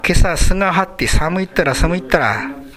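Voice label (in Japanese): "suga", 南山形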